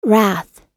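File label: "wrath", US English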